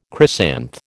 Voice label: "chrysanth", American